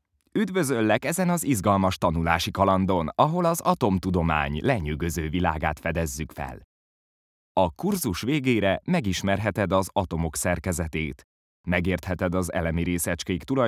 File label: ELEARN